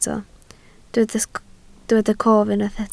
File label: "cof", Welsh